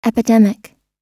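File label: "epidemic", American English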